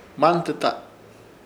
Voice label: "Hmantɨta" puno, Ishir Chamacoco